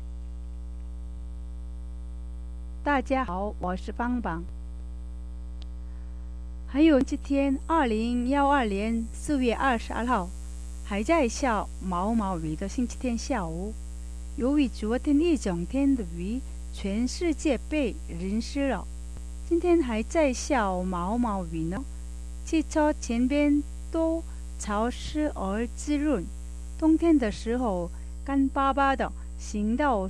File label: chinese